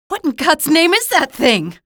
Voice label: alert zombie, female